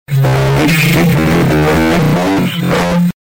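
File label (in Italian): "ah i rischi", Italian; Ah i rischi già aggravi, lo sai.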